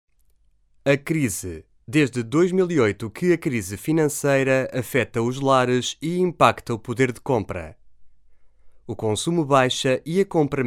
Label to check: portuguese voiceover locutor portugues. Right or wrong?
Right